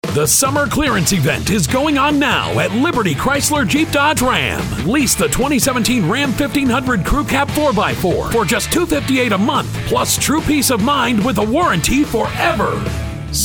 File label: english american automotive; actor de doblaje de inglés estadounidense